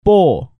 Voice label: "Ppo", Korean